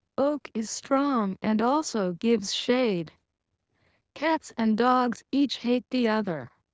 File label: lpcnet codec, female